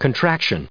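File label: "contraction", US English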